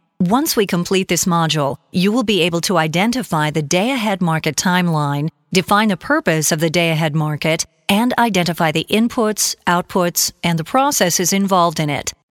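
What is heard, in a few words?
American elearning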